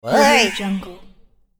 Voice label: teleport panic voice

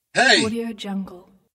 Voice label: "hey", male voice

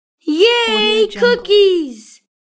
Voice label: boy says yay cookies

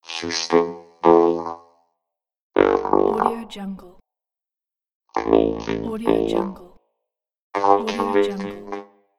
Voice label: talking artificial intelligence

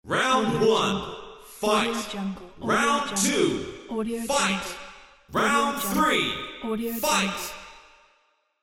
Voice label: arcade style round announcer voice

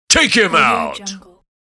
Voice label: sports announcer saying take him out

